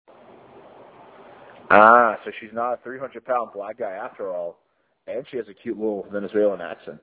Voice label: Audio message